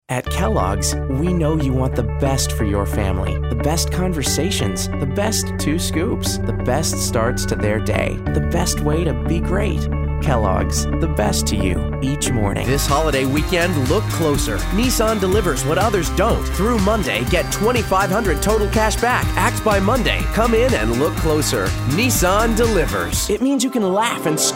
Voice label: Commercial